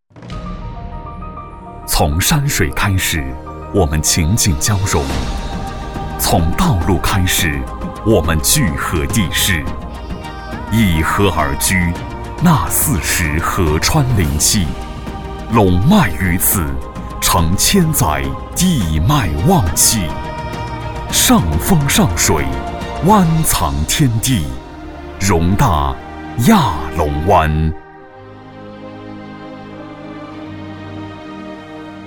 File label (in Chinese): 男 广告; 亚龙湾高端房车